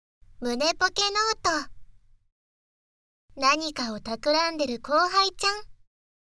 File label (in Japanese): タイトルコール